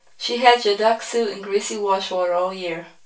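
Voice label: female reverberant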